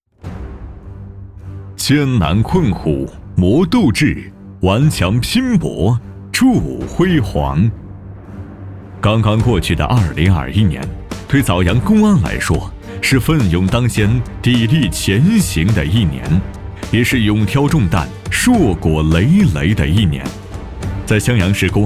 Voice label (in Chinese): 男 专题 公安工作宣传片; 枣阳